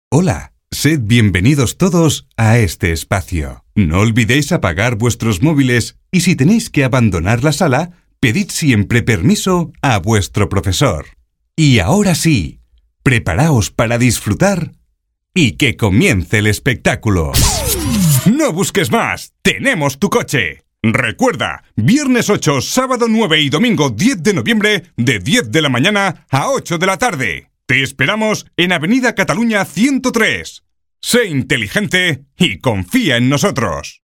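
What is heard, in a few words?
male Castilian Spanish voice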